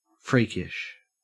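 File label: "freakish", Australian English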